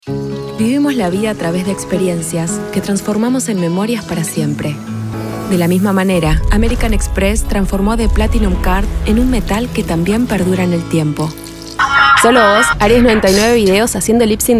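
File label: Commercial, Argentina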